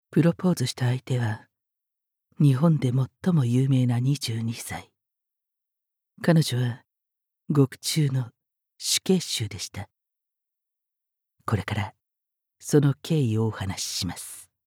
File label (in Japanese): ナレーション; 夏目アラタの結婚